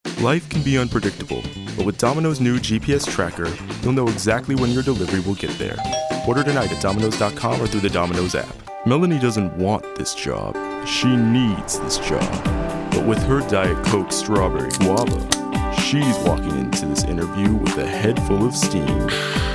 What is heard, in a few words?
Commercial, Male voices